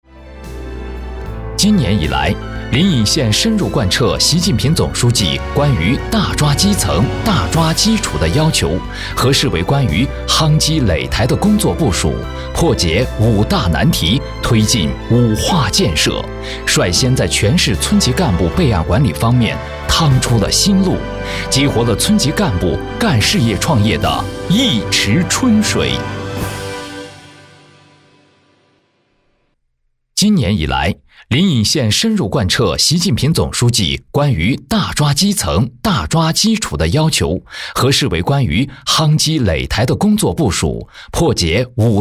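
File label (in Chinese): 专题奋进力量大气; 临颍县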